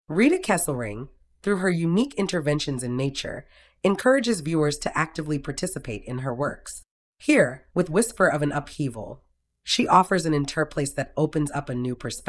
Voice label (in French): anglais